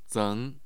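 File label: "zeng2", Mandarin Chinese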